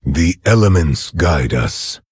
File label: Orc Emote GREETINGS; Nerzhul